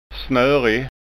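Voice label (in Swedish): "snorig", Scanian dialect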